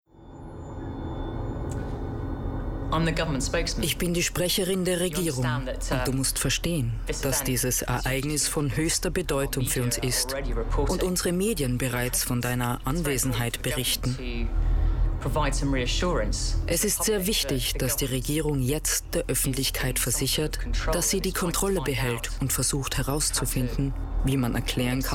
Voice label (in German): Dubbing